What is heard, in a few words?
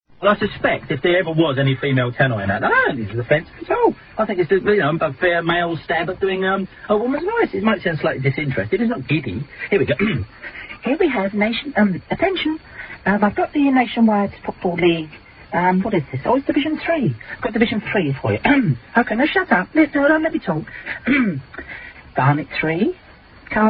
tannoy